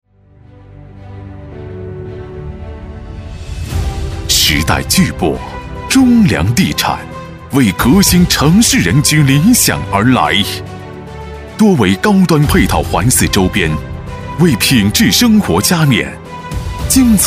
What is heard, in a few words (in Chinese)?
国语男 专题片 地产; 中粮天悦